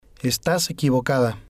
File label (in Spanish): "estas equivocada", Spanish